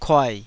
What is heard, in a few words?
"kuai3", taiwanese syllables